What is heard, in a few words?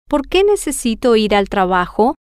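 whyDoINeedToGoToWork, Spanish, female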